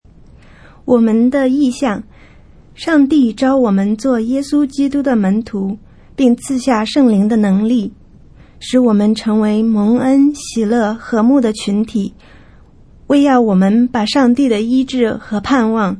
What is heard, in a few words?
Mandarin; Purpose Spoken Versions